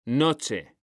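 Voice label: "noche", Spanish, male; mekanta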